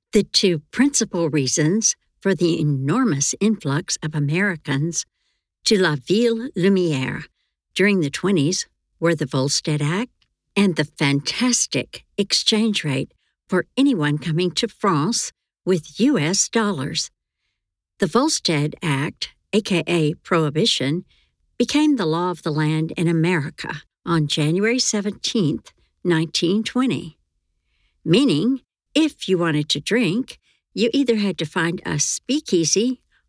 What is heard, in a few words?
Audiobook Compilations